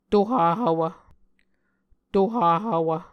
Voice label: "tohahvwv", Muscogee

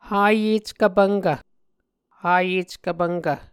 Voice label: "hayeckvpvnkv", muscogee

